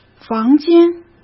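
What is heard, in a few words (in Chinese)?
"房间", Mandarin Chinese; hsk; chinese-flashcards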